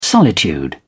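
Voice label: "solitude", English, British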